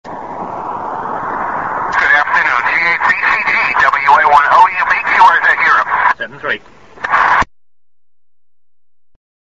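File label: amateur radio contact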